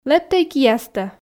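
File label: Komi sentences, female; лэптӧй киястӧ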